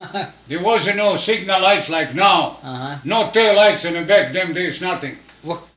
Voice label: Personal history with 88 year old male Eveleth Minnesota, american english dialect